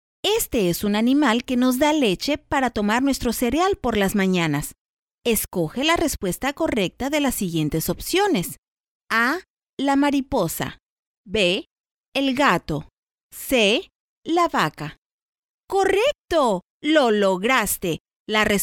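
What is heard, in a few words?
Spanish Latin America female voice over, upbeat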